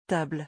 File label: "table", French